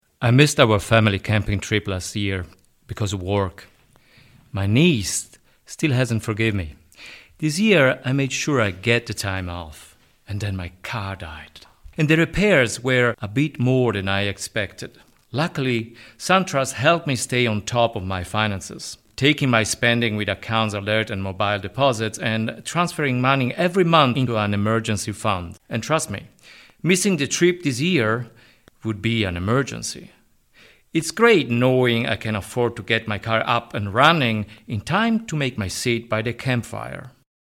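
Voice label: Italian, English accent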